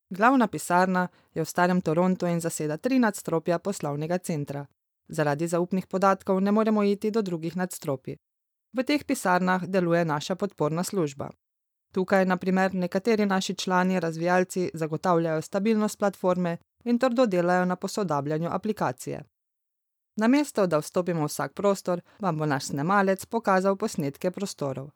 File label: female slovenian voice over narrative